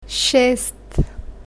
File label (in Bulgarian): "shest", bulgarian